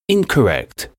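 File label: "incorrect", English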